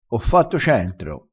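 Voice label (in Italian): "picchia", dialettale, crespinese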